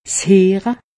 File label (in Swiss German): "sheere", alsace; medecine